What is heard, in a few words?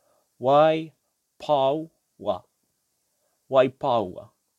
"Waipaoa", te reo